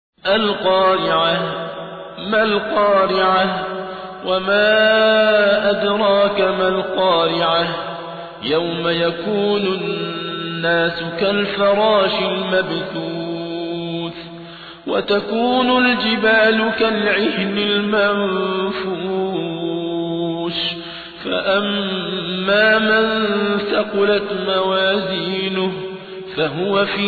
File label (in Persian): ترتیل سوره قارعه; صابر عبدالحکم